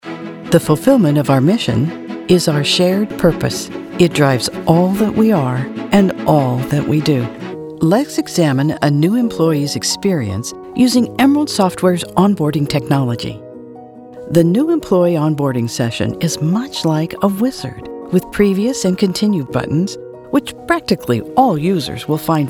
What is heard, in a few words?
corp elearn prof